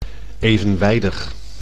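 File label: "evenwijdig", Dutch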